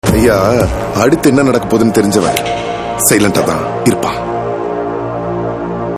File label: Dialogue Ringtone; Eeswaran; Silambarasan